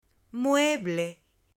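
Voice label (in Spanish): "MUEBLE", mujer; MUEBLES; AppLenguaje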